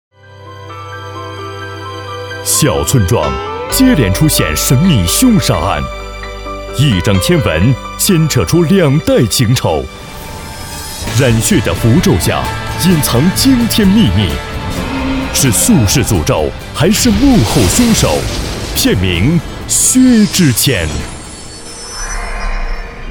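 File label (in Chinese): 男 预告 电影预告 神秘大气, 普通话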